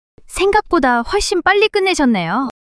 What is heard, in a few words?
surprise, monologue